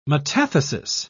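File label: "metathesis", English, British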